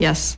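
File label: "yes" female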